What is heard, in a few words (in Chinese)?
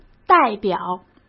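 "代表", Mandarin Chinese; hsk; chinese-flashcards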